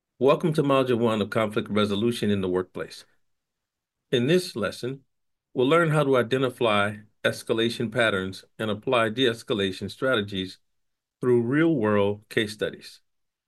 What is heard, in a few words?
elearning; demo reel; Cafe Iman West, LLC DBA CIWLEARNING